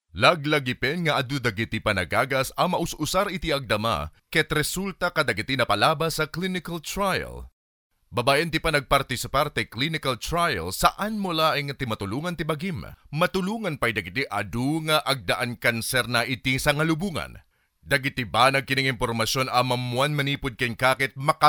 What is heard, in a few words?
ilocano male